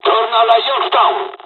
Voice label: mission fly, dialogs italian; cors mission; War Thunder

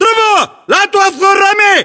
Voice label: order action boost, battle chatter, english

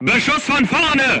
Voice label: voice message shot front, crew tanks german

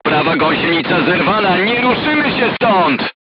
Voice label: voice message right track knocked down, polish

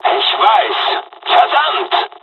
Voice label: dialog, dialogs german; War Thunder; midway

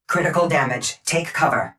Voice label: critical damage take cover, Titan, EN, Tone Voice; Titanfall 2

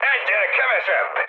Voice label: player killed enemy, mood high, speech hun

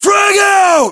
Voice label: US inform attack grenade, battlechatter, english

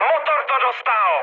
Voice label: damaged engine, speech cze, mood high

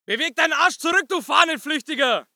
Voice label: voice ger infantry

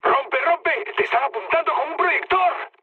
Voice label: searchlite, mood high, speech; War Thunder

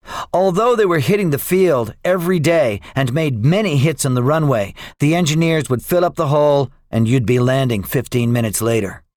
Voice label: debriefing, english; us guadalcanal; War Thunder

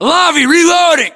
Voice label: inform reloading, US

